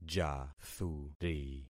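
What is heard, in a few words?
"jathuri", concatenated syllables; Affixing experiment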